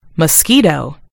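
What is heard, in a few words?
"mosquito", British English; gcompris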